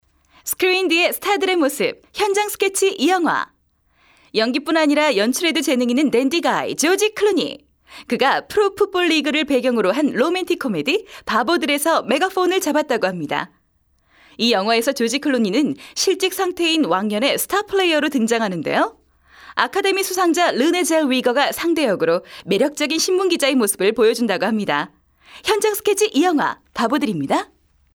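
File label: Korean female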